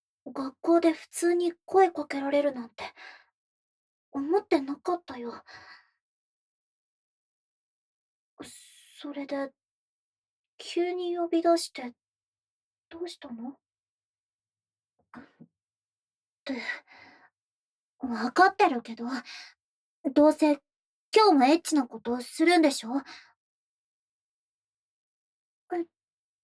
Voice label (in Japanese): ボイスオンリー, ASMR, バイノーラル; エピローグ